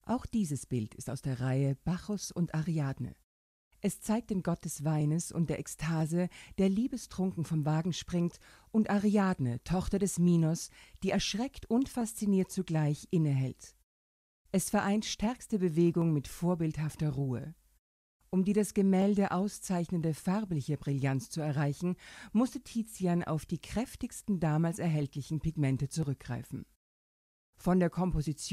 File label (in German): Museum Audio Guide